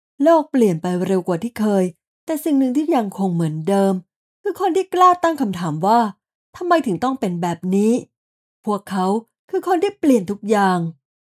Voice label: Corporate Tech Startup, Female